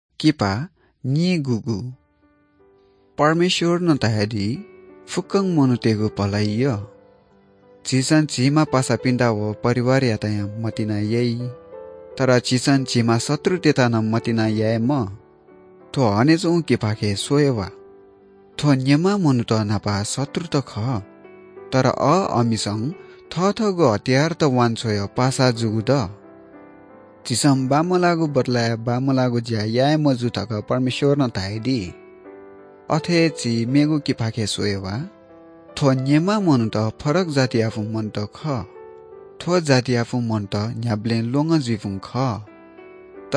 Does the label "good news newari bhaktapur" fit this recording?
Yes